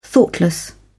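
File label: "thoughtless", British English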